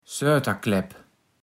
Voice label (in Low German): "Ssöterklepp", platt